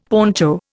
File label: "poncho", Indian; Dictionary